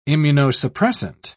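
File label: "immunosuppressant", British English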